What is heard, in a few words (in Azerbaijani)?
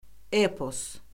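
"epos", Azerbaijani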